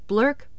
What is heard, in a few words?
adult, speech